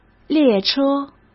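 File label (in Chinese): "列车", Mandarin Chinese; chinese-flashcards; hsk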